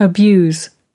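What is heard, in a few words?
"Abuse", American English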